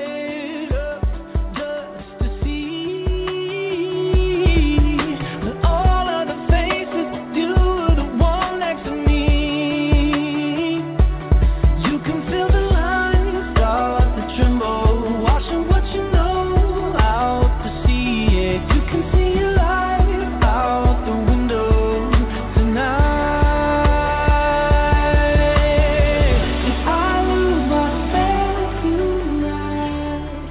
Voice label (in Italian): Messaggio Attesa